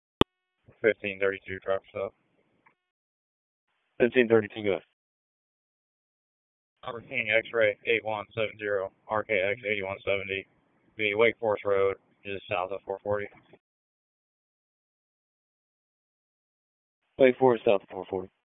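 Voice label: Police Northeast District Disp, radio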